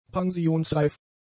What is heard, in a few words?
"pensionsreif", German; adjectives